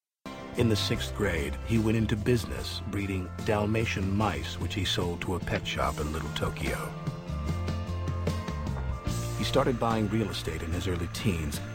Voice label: voiceovers